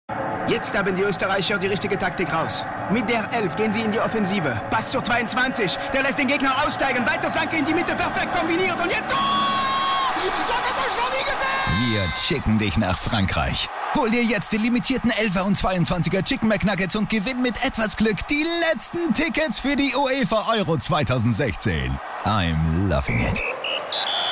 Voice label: akzent frz; McDonalds

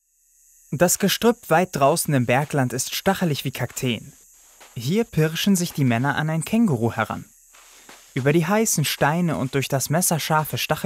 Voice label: Jugend Doku

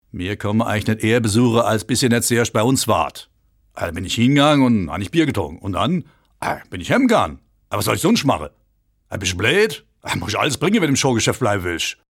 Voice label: dialekt; LOFT VOICES

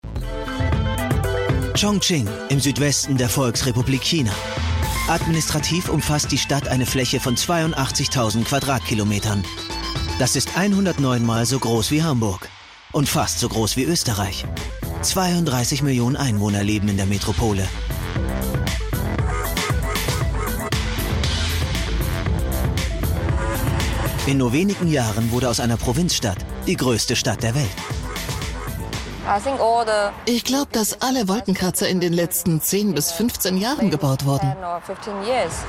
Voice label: doku; echtzeit

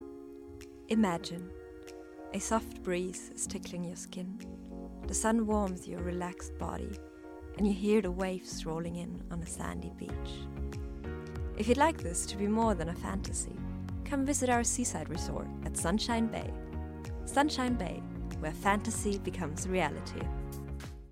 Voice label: Englisch Werbung Urlaubsziel

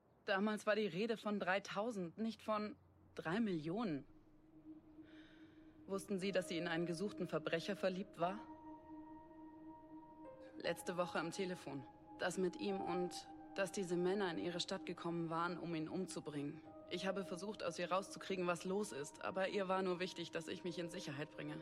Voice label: verwirrt trauernd

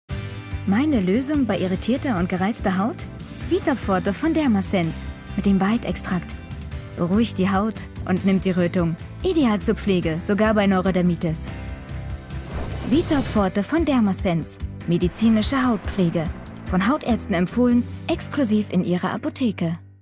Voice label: Werbung jung warm authentisch; DERMASENCE Vitop forte

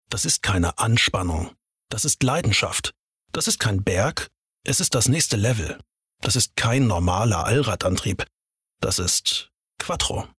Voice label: Werbung; Audi Quatro

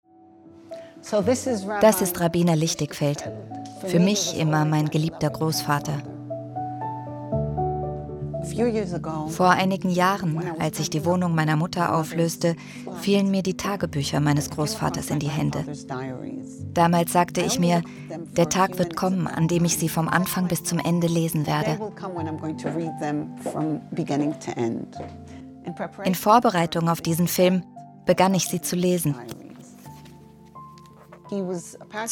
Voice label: Doku VO; I.E. Lichtigfeld

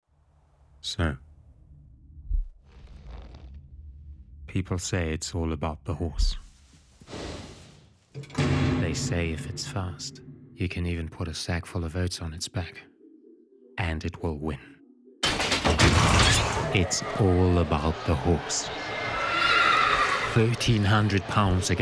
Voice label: Off Film englisch; LOFT VOICES

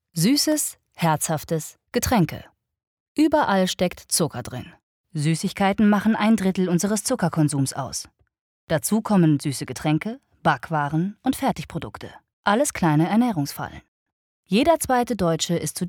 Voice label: Doku

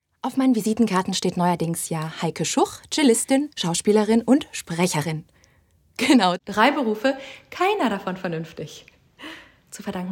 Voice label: Natürliche Stimme kurz; LOFT VOICES

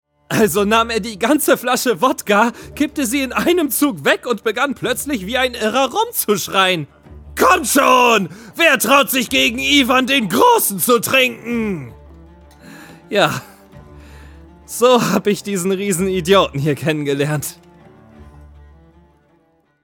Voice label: Froehlich aufgeregt

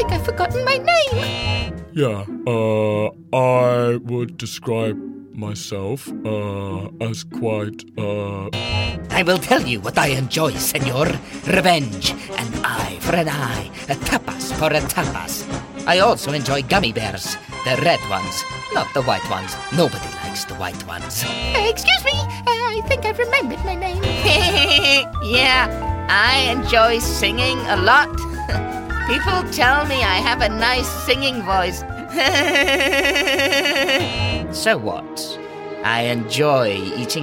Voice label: ENGLISCH Cartoon Animation

